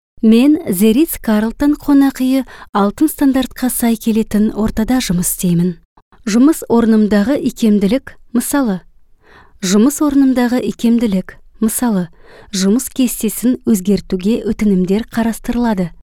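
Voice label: female Kazakh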